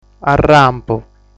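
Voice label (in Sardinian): "Arrampu", Sardinian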